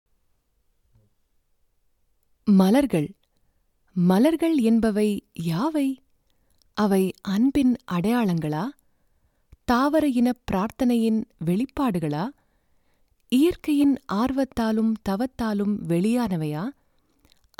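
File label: Tamil, male, corporate; voice-over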